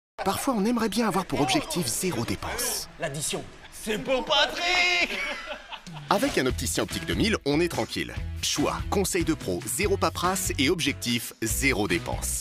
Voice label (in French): Pub TV; Optic 2000